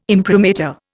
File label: "imprimatur", Indian